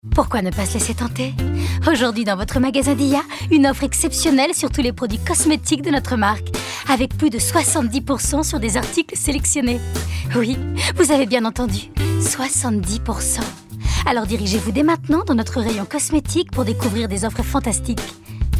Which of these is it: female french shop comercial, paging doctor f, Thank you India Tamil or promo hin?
female french shop comercial